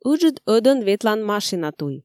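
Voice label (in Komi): "ыджыд ӧдӧн ветлан машина туй", Komi, female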